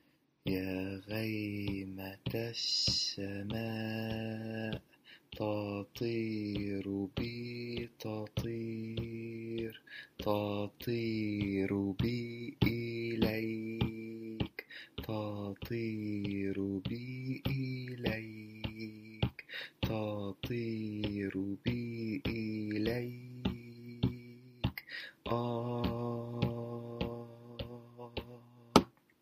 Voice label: diction bars; Songs of the East